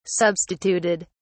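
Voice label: "substituted", British English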